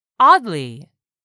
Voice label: "oddly", English; 3000 words